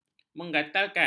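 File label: "menggatalkan", Malay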